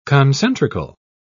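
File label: "concentrical", British English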